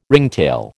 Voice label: "ringtail", American